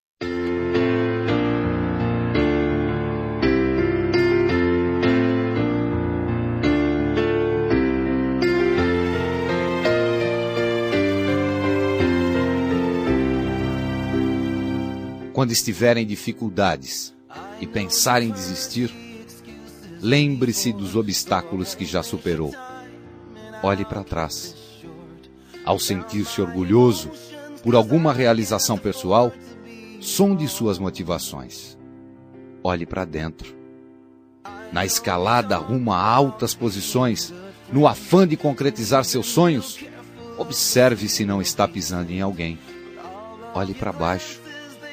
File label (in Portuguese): Amizade Com Otimismo M